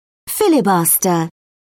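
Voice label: "filibuster", English, British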